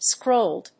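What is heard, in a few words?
"scrolled", English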